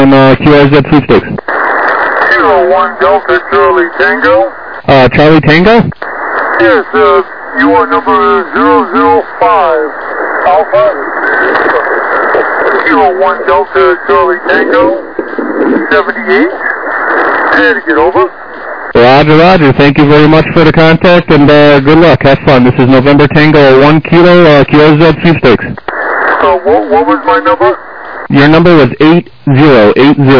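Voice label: lower sideband 7MHz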